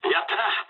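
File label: Japanese player killed enemy mood low; War Thunder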